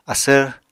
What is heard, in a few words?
"asvr", Apatani